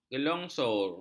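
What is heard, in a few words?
"gelongsor", Malay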